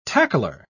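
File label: "tackler", British English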